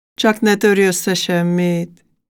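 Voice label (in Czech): maďarština žena, Hungarian female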